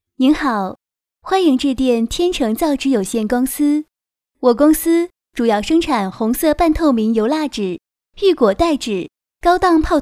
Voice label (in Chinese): 女 彩铃 欢迎致电; 天成造纸